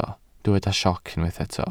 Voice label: "sioc", Welsh

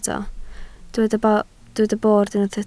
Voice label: "bord", Welsh

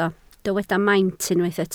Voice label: "maint", Welsh

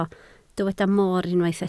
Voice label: "moor", Welsh

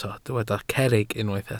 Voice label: "cerrig", Welsh

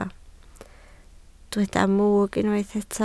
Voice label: "mwg", Welsh

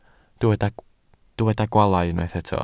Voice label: "gwaalau", welsh

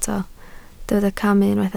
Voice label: "camu", Welsh

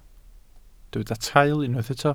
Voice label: "traul", Welsh

